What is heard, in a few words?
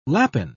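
"lapin", British English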